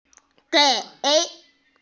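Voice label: "gei3", Mandarin Chinese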